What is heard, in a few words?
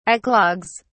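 "eclogues", British English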